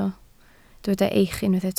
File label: "eich", Welsh